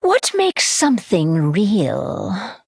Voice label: Female Human Play

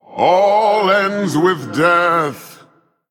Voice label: Male Human Play

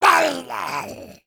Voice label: Male Imp Death

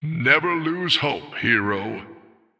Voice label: Male Human Combat Loss

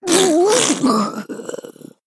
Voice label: Female Winterfin Death

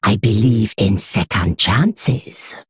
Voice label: Female Mummy (Troll) Play

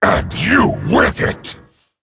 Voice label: Male Dragon

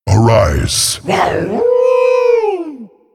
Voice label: Male Wild God Greetings

